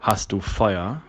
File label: "Feuer", german